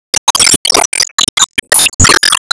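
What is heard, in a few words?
Voice Battle High Score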